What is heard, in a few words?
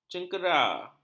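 "cengkera", Malay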